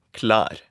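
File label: "klaer", Norwegian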